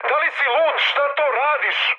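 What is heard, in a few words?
Serbian player killed ally mood high, speech; War Thunder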